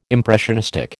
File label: "impressionistic", American